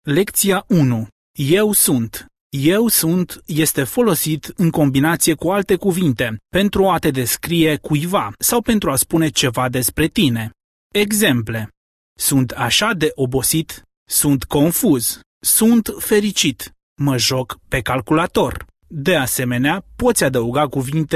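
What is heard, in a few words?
Romanian elearning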